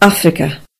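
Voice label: africa, Scottish Gaelic; gcompris-data; geography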